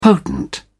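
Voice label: "potent", British English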